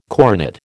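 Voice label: "coronet", American English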